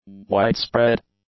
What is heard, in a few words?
"widespread", english; adjectives